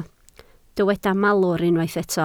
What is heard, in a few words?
"malwr", Welsh